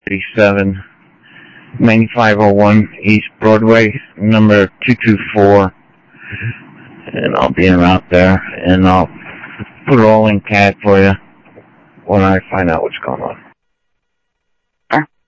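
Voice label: scanner audio trunk, South Scottsdale, Sheriff East County; MCSO - EAST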